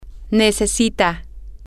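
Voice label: she needs, Spanish, female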